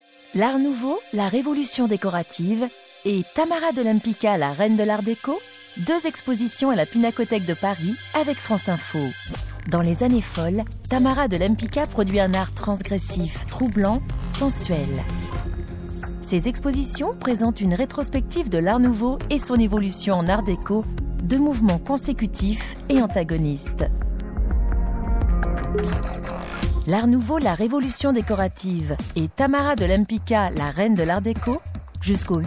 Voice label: annonce classe, voix off comédienne; Pinacothèque

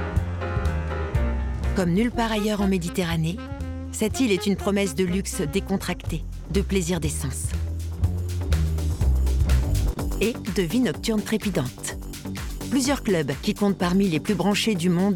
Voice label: narration cool, voix off, comédienne; Doc; Arte; Ibiza Mythos

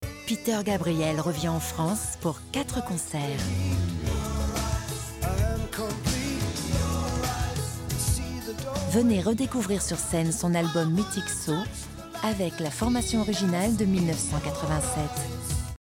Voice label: Posee, Posée / Chuchotée, comédienne voix off

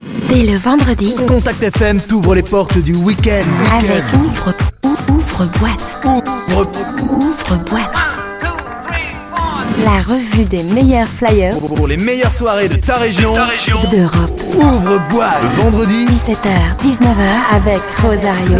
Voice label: d'jeunes, voix off comédienne; Contact FM; arte

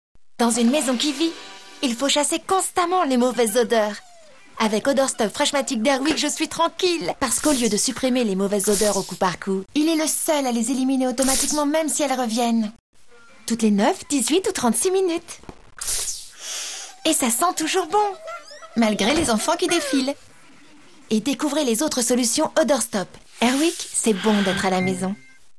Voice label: Douce maman; Airwick

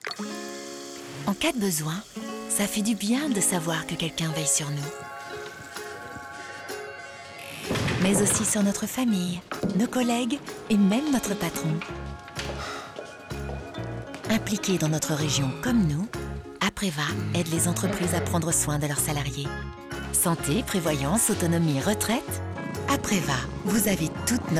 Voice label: bienveillante douce; Apreva Assurance

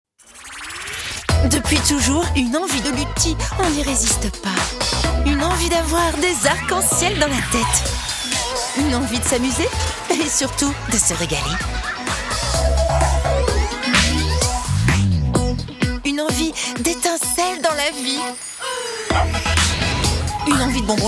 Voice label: Spot TV legere gourmande; Lutti; Complice

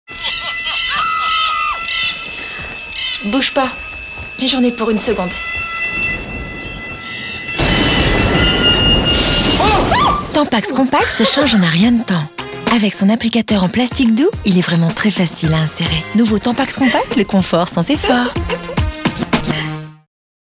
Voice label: doublage signature douce; Pub TV; Santé / Hygiène; Tampax Kompak

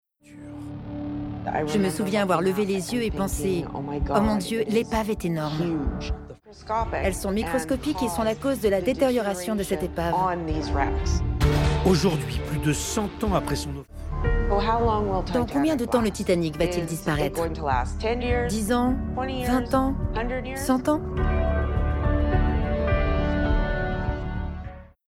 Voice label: V Over Doc drotie quotidienne; Titanic; Documentaires; Reportage

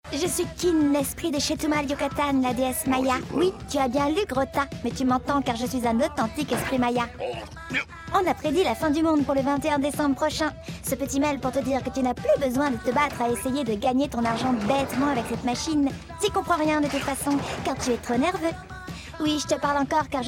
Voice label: comedie, voix off comédienne; Chétumal – MCM

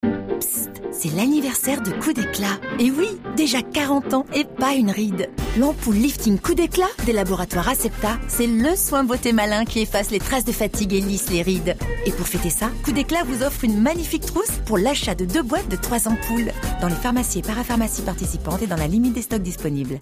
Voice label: Spot Radio Complice fraîche; Coup déclat

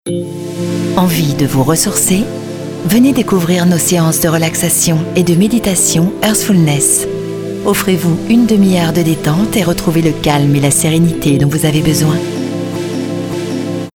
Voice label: Spot radio; HearthFullNess

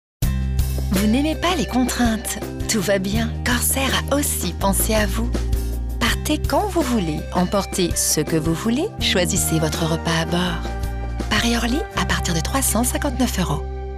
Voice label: Fipette mutine, voix off comédienne; Corsair

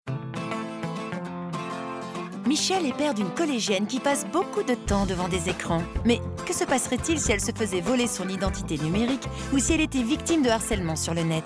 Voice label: Film Web Narrative gaie; Thélem Assu

